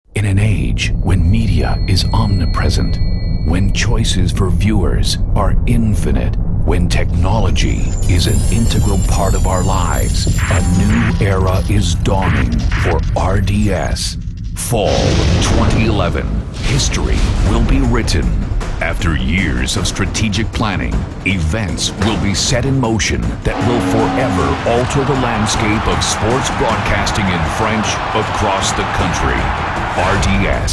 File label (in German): Habillage Vorschauvideos; Jugendfrei; NATIVE PROFISPRECHER